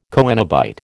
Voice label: "coenobite", American